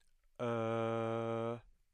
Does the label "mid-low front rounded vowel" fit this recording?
Yes